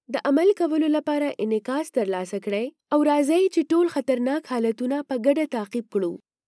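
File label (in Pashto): Pashto, General; voice demos; GoLocalise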